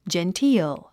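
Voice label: "genteel", English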